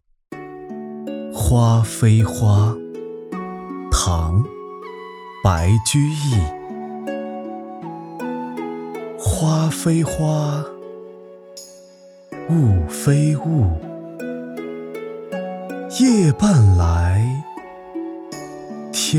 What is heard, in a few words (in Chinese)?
男国 诗词 花非花; 诗词类男声样音